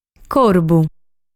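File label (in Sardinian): "Corbu", Sardinian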